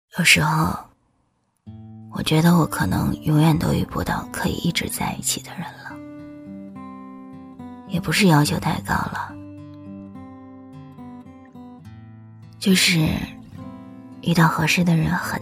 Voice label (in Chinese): 女 文艺 电台伤感风格 低沉伤感 音乐